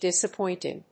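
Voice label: "disappointing", English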